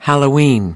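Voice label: "halloween", English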